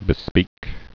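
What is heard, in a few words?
"bespeak", spoken word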